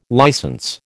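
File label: "licence", American English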